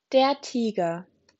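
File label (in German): "Tiger", German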